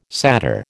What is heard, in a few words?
"satyr", American